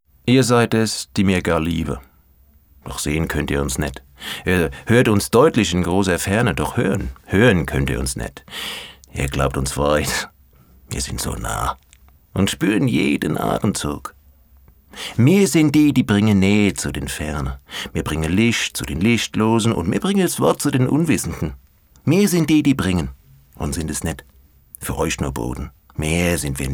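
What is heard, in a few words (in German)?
Dialekt hessisch, Sprecher, Männer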